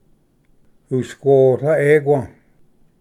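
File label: "usquola equa", Cherokee; ᎤᏍᏉᎳ ᎡᏆ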